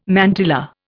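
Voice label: "mantilla", Indian English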